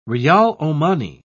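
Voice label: "riyal-omani", British English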